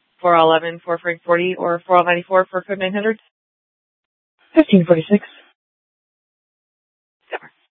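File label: Scottsdale PD North Dispatch; Nth Dispatch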